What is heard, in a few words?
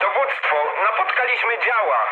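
damaged aa, mood low, Polish speech; War Thunder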